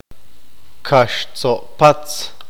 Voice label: "ganso oca", qeqchi

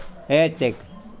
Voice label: Nawat palabras pesado; pipil

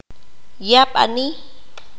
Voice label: "estar enfermo", Q'anjob'al

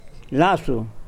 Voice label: Nawat palabras cuerda; pipil

